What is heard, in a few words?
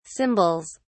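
"cymbals", British English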